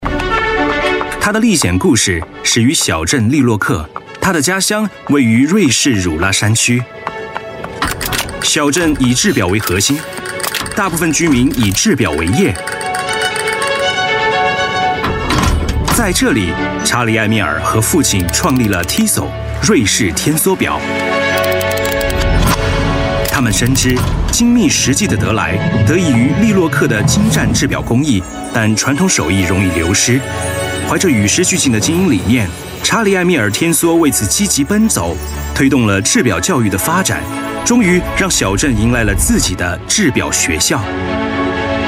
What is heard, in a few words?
chinese voice over male narrator